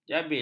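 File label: "jabil", Malay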